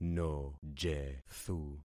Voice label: "nojethu", concat syllables; Affixing experiment

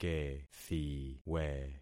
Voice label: "kethiwe", concat syllables; Affixing experiment

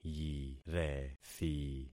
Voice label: "yirethi", concat syllables; Affixing experiment

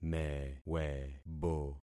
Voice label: "mewebo", concat syllables; Affixing experiment

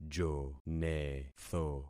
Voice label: "jonetho", concat syllables; Affixing experiment

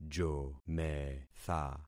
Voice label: "jometha", concat syllables; Affixing experiment

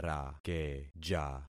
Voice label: "rakeja", concat syllables; Affixing experiment

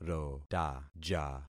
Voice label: "rotaja", concat syllables; Affixing experiment

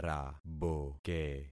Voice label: "raboke", concat syllables; Affixing experiment

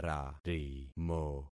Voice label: "rarimo", concat syllables; Affixing experiment

